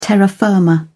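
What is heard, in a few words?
"terra firma", English, British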